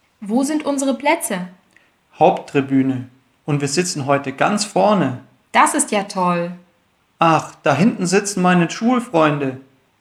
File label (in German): Dialog